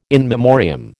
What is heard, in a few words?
"in memoriam", American